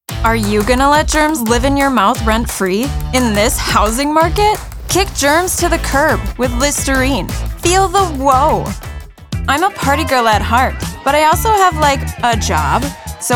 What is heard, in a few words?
Commercial